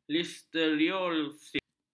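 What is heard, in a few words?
"listeriosis", Malay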